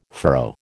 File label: "furrow", American